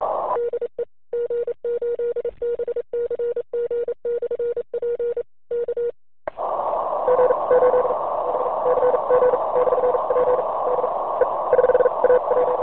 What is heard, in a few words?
qso